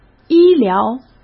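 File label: "医疗", Mandarin Chinese; chinese-flashcards; hsk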